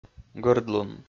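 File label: "гӧрдлун", Komi, male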